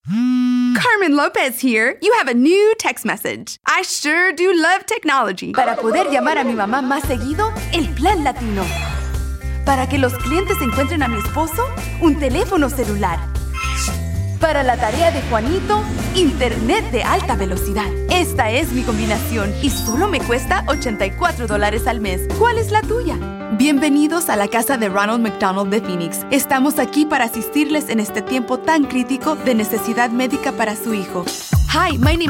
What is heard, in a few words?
Commercial, Female voices